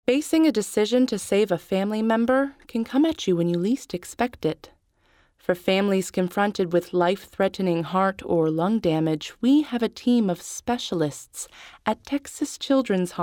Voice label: medical narration; ECMO at Texas Childrens Hospital